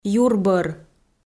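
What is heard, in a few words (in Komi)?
"юрбӧр" Komi, female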